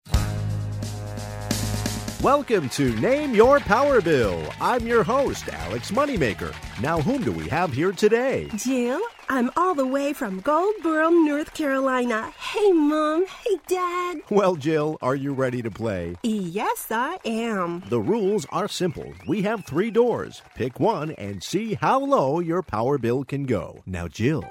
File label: radio dialogue sample spot